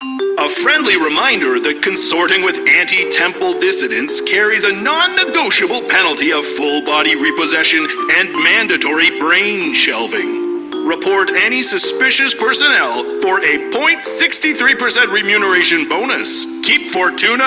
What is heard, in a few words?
PA System, en; Warframe; Solaris Venus